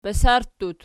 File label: "basarttut", Archi